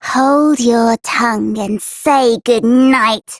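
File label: Child Rhymes Fear Child Female; Duviri; Childrens Rhymes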